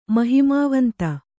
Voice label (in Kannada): "ಮಹಿಮಾವಂತ", Kannada